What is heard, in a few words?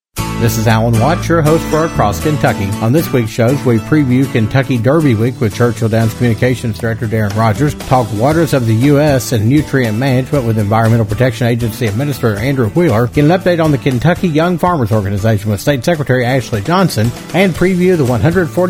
promo; Across Kentucky